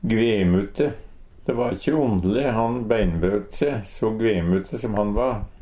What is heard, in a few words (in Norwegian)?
"gvemute", Numedalsmål; De va kje ondeLe han beinbraut se so gvemute so han va.